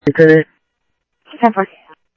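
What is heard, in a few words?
Phoenix police department, scanner; ThompsonPeakHigh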